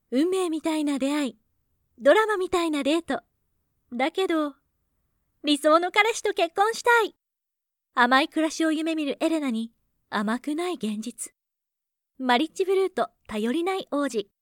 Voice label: japanese movie trailers

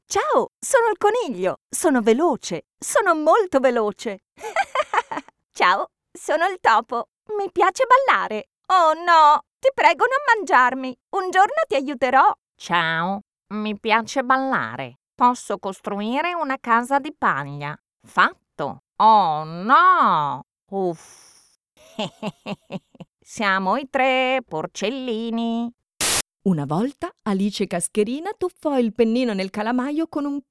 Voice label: italian animation

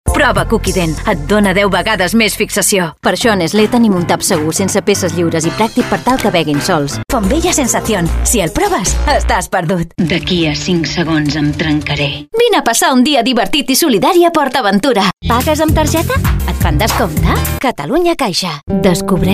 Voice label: catalan commercial

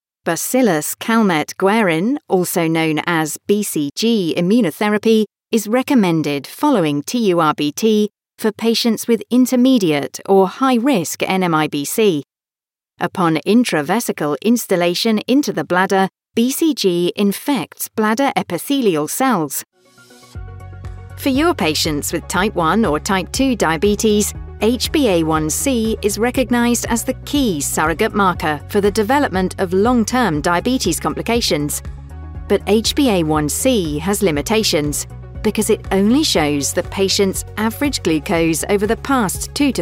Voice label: english british medical narration

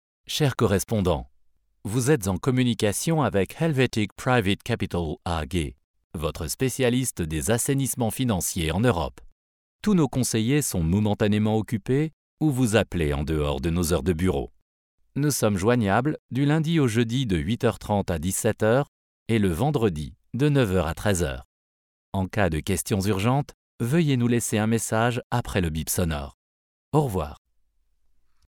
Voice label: french ivr

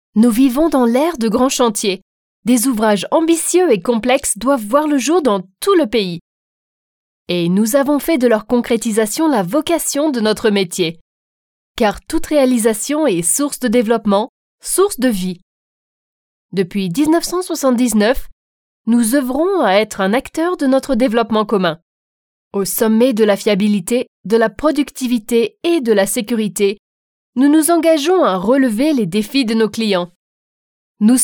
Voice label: french narration

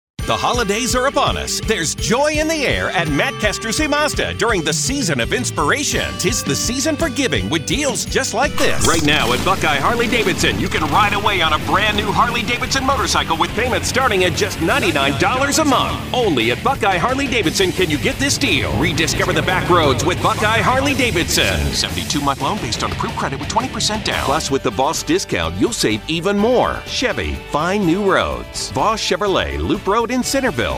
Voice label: english american automotive

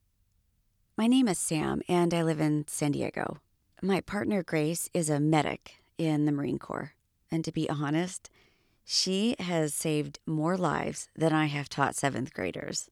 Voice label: english american political ads

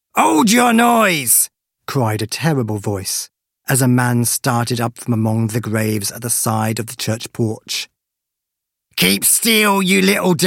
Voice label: english british audiobooks

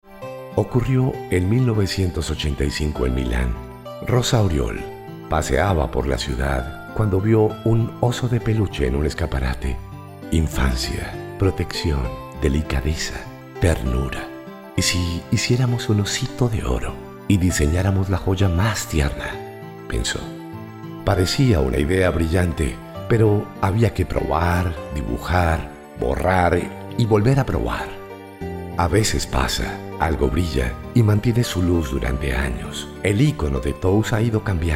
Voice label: spanish latin american documentaries

